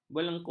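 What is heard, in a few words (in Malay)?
"belengkok", Malay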